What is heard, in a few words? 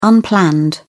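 "unplanned", British English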